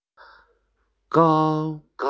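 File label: "gao1", Mandarin Chinese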